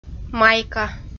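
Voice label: "майка", Komi, female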